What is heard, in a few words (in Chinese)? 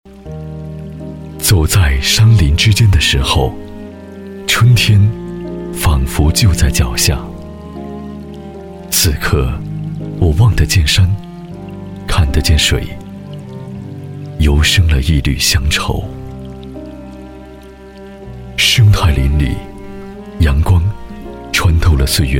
男 广告 地产